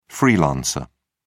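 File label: "freelancer", British English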